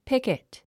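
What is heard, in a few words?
"picket", English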